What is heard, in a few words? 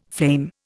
"flame", Indian